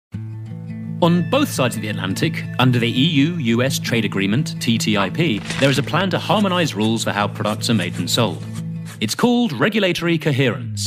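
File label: Explainer Videos selection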